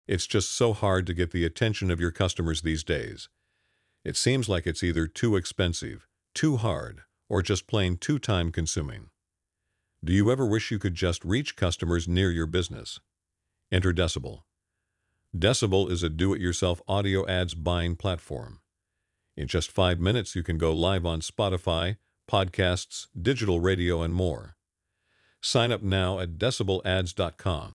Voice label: ad voice